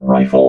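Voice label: "rifle", robot voice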